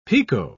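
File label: "pekoe", English, British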